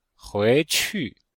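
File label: "hui2qu4", Chinese; Cours-de-Chinois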